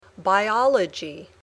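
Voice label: "biology", English word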